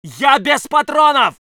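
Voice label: voice soviet infantry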